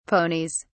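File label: "ponies", British English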